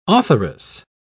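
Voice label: "authoress", British English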